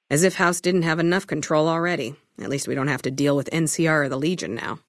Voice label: female adult hello; Fnv

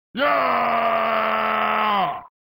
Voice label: male "Yeaaaaaah"

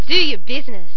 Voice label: Warrior Voice

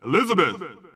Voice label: Unused Announcer; P4A; Elizabeth Alt

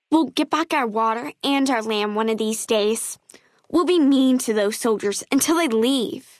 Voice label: female child greeting; FNV

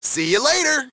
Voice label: male white fast food; GTA IV; Well Stacked Pizza Co

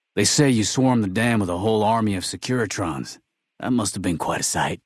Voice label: male adult greeting; Fnv

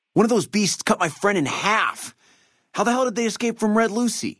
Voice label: male adult dialogue greeting

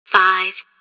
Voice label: train announcer countdown 5; Hat in time

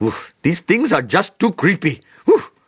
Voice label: creepy; SHAR; apu

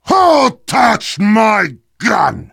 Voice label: heavy taunts

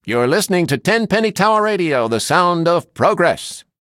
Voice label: radio hello; Fallout3; Radio tenpenny

